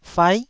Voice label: "fai9", taiwanese syllables